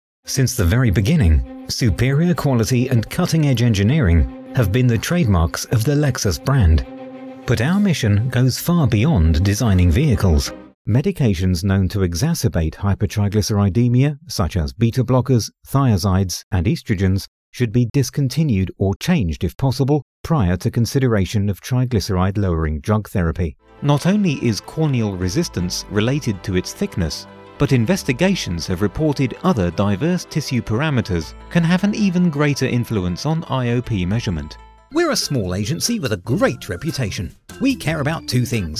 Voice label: british corporate; Smooth, enthusiastic, assured.